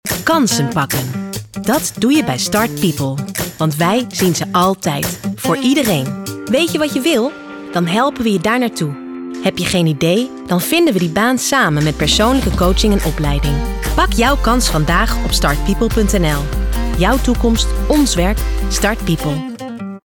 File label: Presentation; Start People